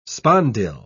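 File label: "spondyle", British English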